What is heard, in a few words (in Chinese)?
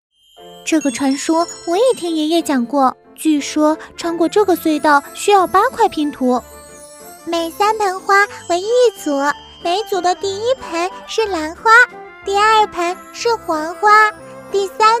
女 童声 男童女童5角色